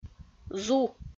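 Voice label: "зу", Komi, female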